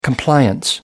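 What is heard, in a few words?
"compliance", British English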